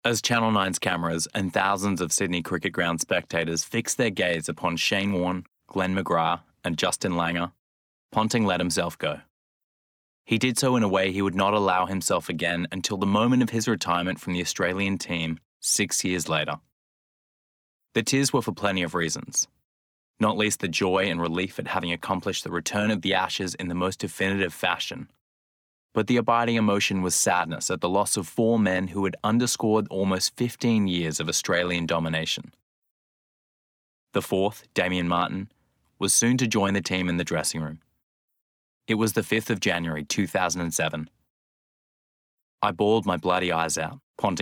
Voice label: Bookread